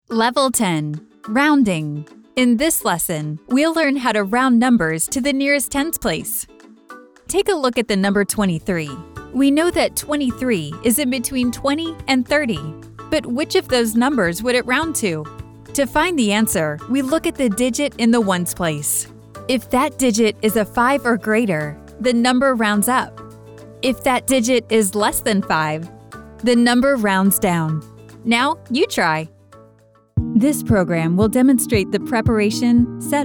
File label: E-Learning, English US; E-Learning Demo; Presentation, Tutorial